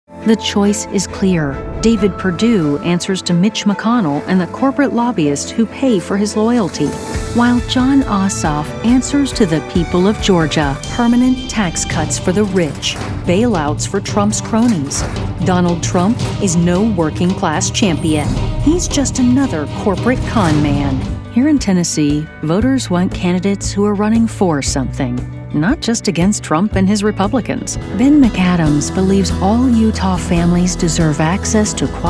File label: Political, voiceover; Dems